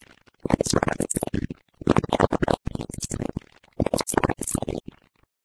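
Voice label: data transfer female vox, citadel; video games; source engine; episodic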